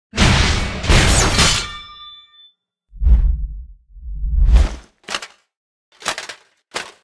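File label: cinematic voice, Polish; lineage2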